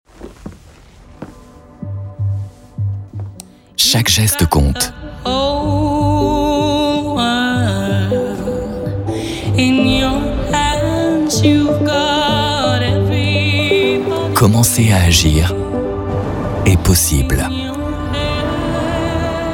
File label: natural gentle inspirational, French voice over; Volvo